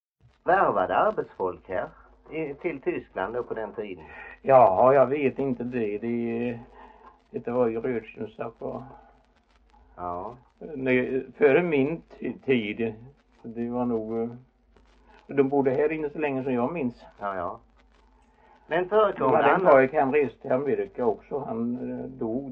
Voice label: Idala, dialekter; HALL

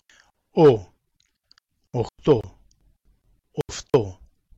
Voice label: Ofto, Romska alfabetet

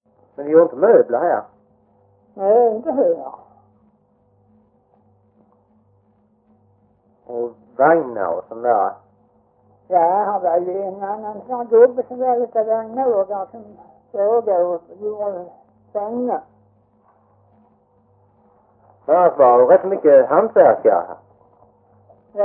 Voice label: Skånes Fagerhult SK, dialekter; Folkminnen

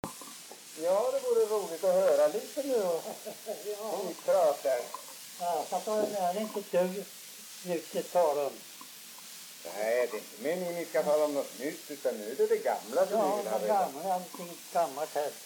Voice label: dialekter; Folkminnen

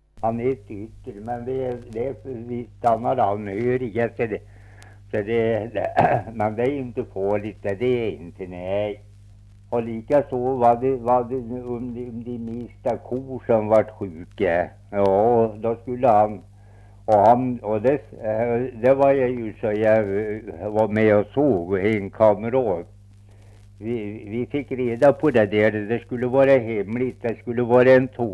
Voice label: dialekter; Folkminnen

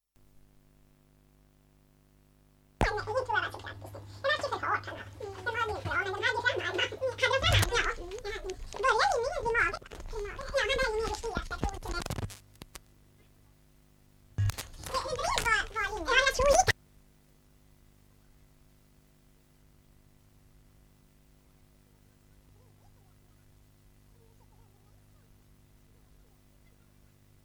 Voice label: dialekter; Folkminnen; Svenska sagor

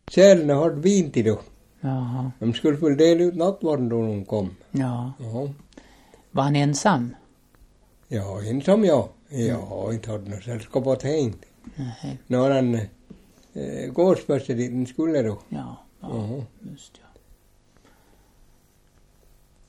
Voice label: Mockfjärd, dialekter; Svenska sagor; DAL; Folkminnen

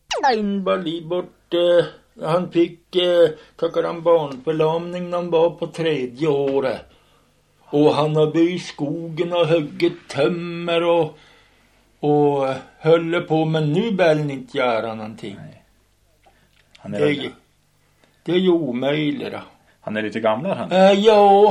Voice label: Hackås, dialekter; Svenska sagor; Folkminnen

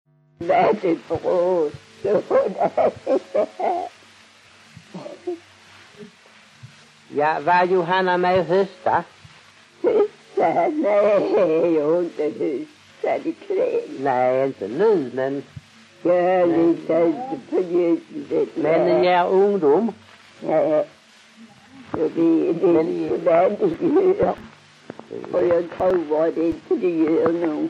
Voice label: Strövelstorp SK, dialekter; Folkminnen

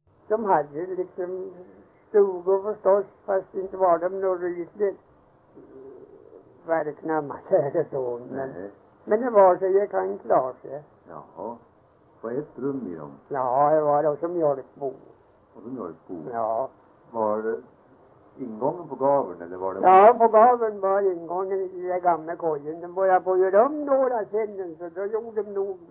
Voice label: dialekter; Folkminnen

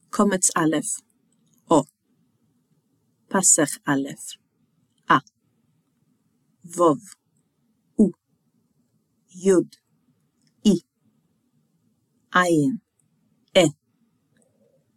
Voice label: Vokaler och diftonger, Jiddish

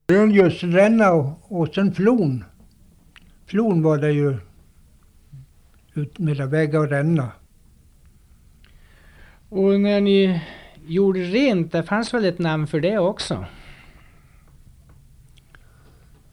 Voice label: dialekter; Folkminnen

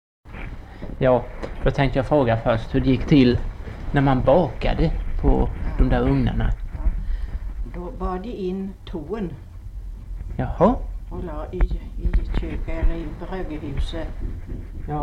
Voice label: Morbylanga, dialekter

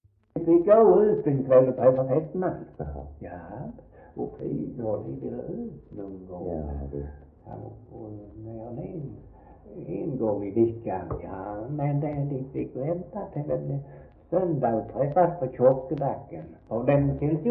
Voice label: Svensköp SK, dialekter; Folkminnen

